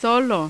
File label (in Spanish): "solo", Spanish